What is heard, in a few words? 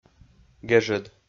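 "гежӧд", Komi, male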